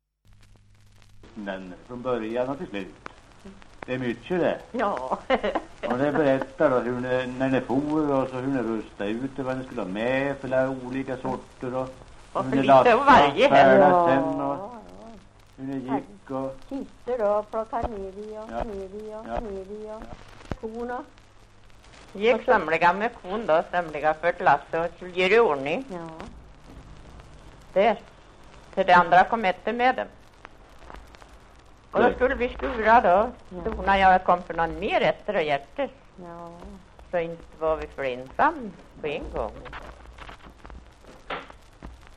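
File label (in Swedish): Österfärnebo Gästrikland, Svenska sagor, dialekter; Folkminnen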